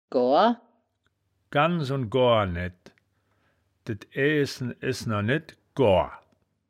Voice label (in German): "goar", wittlich